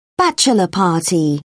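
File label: "bachelor party", British English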